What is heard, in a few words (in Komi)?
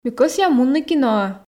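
ми кӧсъям мунны киноӧ, Komi, female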